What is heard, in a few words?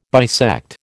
"bisect", American